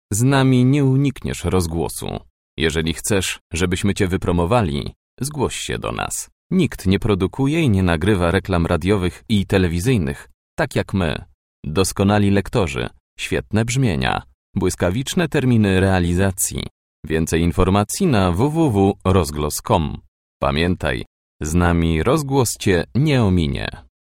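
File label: Polish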